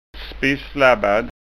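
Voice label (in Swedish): "spissflabbad", Scanian dialect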